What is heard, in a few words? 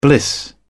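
"bliss", British English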